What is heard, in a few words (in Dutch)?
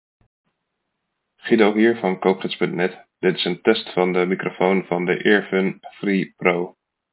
microfoon test; earfun free pro